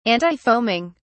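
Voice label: "antifoaming", British English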